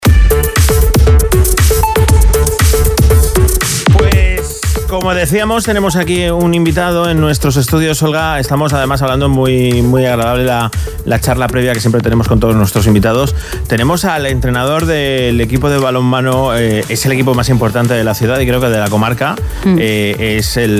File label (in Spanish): entrevista radio; radio sirena COPE